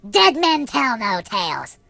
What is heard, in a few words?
I'll get you, Pirate voice; hedgewars